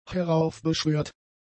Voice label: "heraufbeschwört", German; adjectives